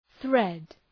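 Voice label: "thread", English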